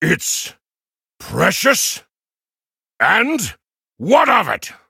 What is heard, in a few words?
levelup, en; cookie run